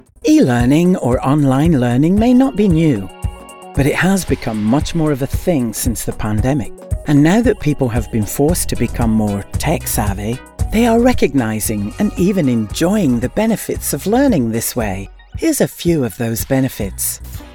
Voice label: eLearning; eLearning benefits